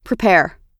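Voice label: "prepare", American English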